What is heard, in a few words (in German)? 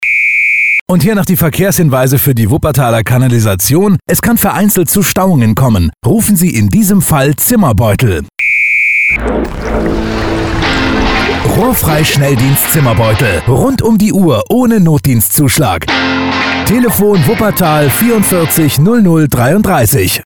radiospot